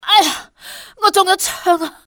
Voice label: im hit, fmale; XBOX; civ

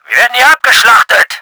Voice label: TAKING BEATING, german; Battle Engine Aquila

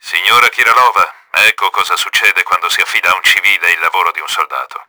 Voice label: ANNOYED, Italian; KRAMER; Battle Engine Aquila; XBOX

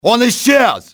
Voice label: disappeared, russian soldier; enemy; XBOX

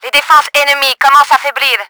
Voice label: CAVE, french; Battle Engine Aquila; XBOX

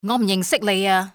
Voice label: dont know, male; XBOX; civ

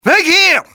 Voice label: get away, civ male; XBOX

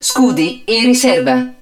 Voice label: hud shield low, Italian; XBOX; BattleEngineAquila

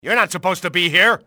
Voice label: "you're not supposed", english male; XBOX; enemy

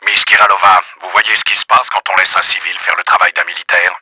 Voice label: ANNOYED, french; KRAMER; Battle Engine Aquila; XBOX

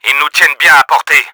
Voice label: SHELLING, french; Battle Engine Aquila; XBOX

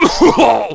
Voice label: fall, negative; Splashdown; XBOX

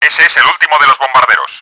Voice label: airstrike over, Spanish; Battle Engine Aquila; XBOX

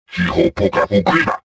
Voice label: suspicious

